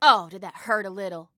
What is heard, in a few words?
Taunt "Aww", Female